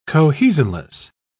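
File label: "cohesionless", British English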